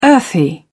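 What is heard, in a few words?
"earthy", British English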